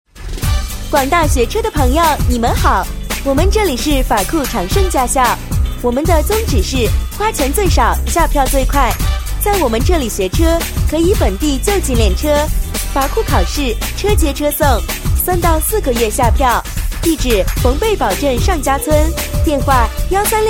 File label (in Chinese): 女 广告, 国语女声配音; 驾校宣传